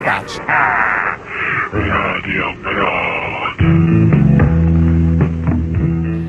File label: Radio jingle; Radio Brod